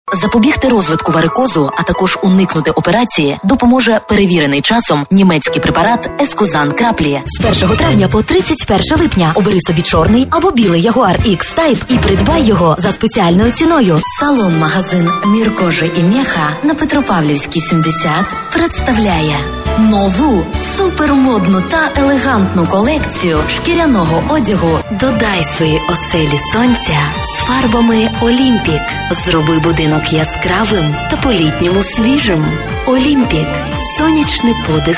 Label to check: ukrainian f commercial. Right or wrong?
Right